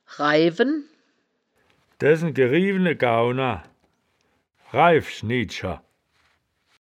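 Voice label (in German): "raiwen", wittlicher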